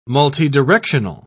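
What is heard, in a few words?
"multidirectional", English, British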